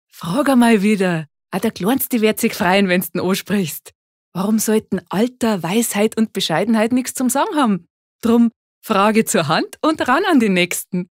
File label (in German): Dialekt Bayerisch